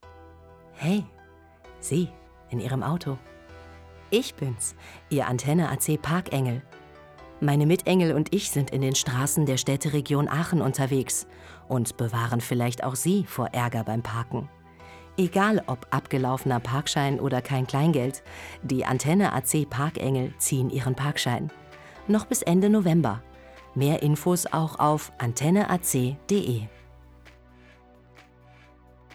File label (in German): Funkspot lieblich